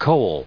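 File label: "coal", US English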